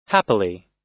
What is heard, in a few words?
"happily", us